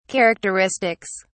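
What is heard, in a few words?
"characteristics", British English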